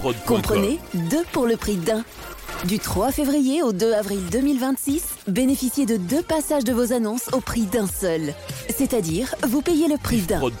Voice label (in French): Promo Regie Ramadan; Tele 24